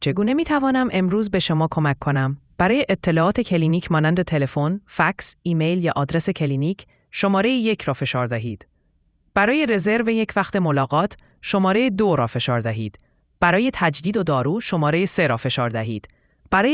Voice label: question persian